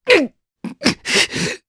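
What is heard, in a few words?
Vox Dead, Japanese; voices; heroes; Kasel; kingsraid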